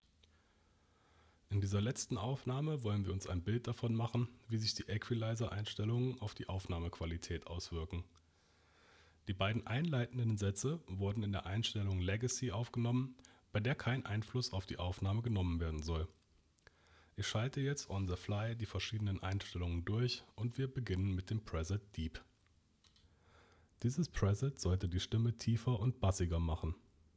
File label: Maono PD400X USB EQ